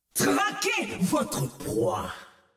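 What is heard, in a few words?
French; 奥丽莎; 守望先锋2; 终极技能; Traquez votre proie !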